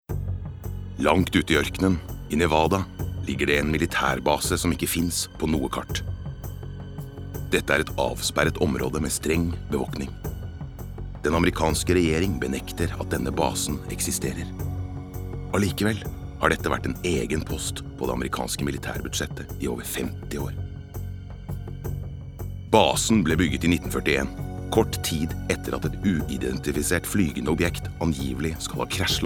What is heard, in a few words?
no documentary